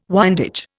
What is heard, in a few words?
"windage", Indian